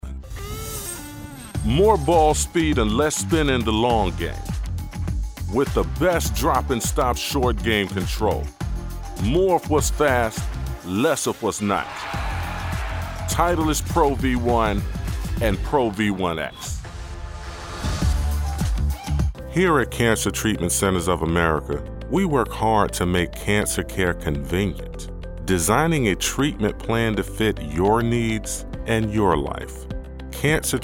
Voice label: Commercial

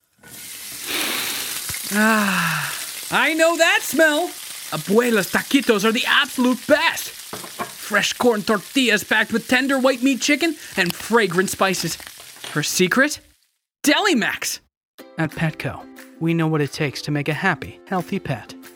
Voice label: Commercial

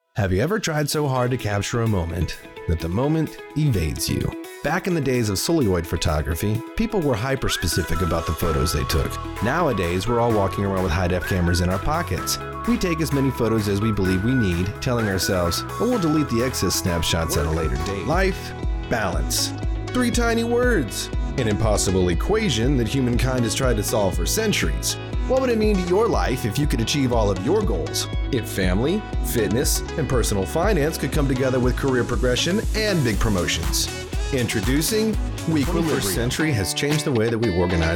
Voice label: Voiceover

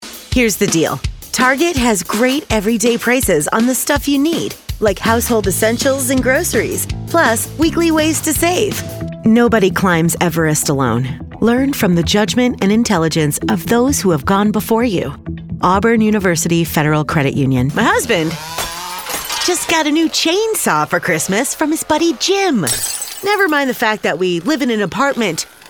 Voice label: voice over commercial

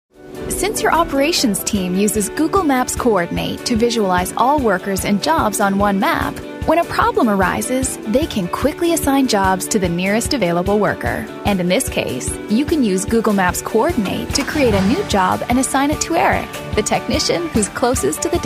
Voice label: Narration

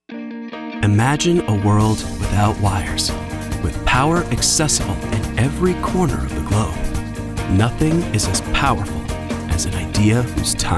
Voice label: English Commercial

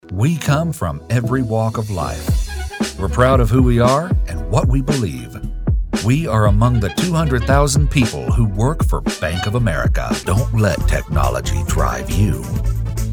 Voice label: Commercial

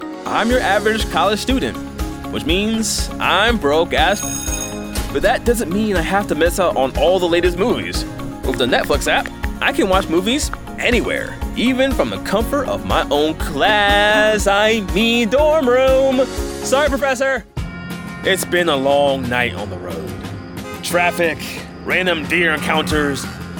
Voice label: Commercial

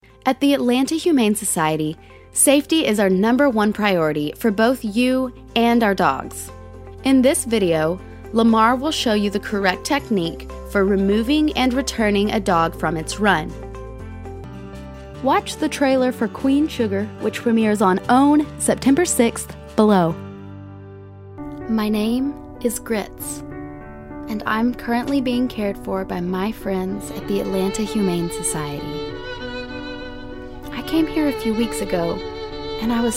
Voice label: General VO

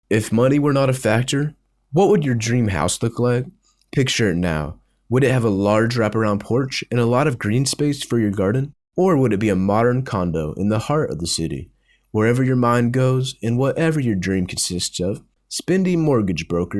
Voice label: voice over

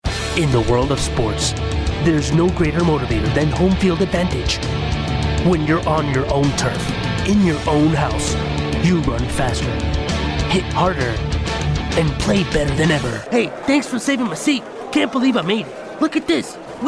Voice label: Commercials English

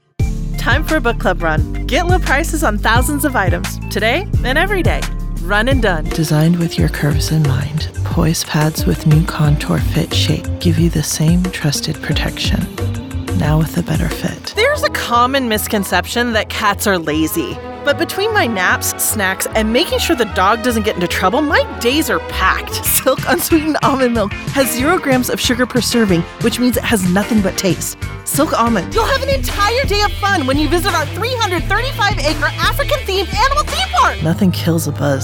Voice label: Commercial

